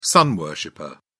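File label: "sun worshipper", British English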